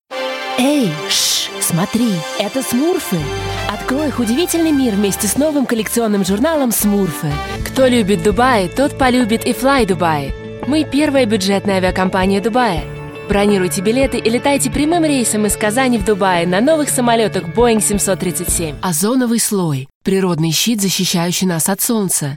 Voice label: Russian, Female, 20s-30s; Eastern European